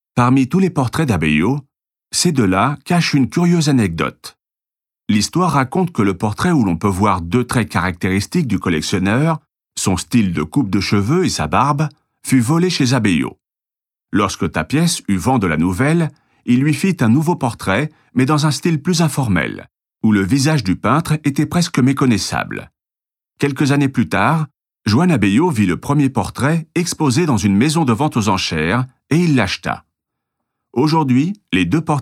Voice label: audioguia french